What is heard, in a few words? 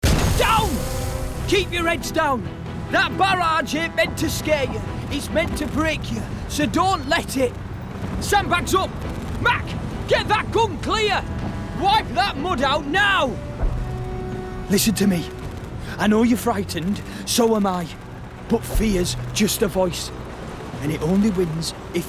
Gaming WW2 Commander